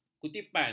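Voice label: "kutipan", Malay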